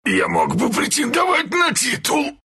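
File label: death, Russian; Tusk; Dota 2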